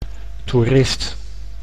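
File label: "toerist", Dutch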